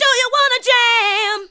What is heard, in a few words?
ADLIBS 90; Ueberschall; Jam Box